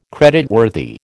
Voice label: "creditworthy", American